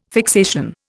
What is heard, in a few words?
"fixation", Indian